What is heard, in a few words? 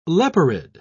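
"leporide", British English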